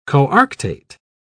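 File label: "coarctate", British English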